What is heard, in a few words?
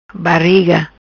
"Bariga", Macanese; Bariga, pança; Belly, paunch